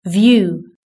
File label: "view", british english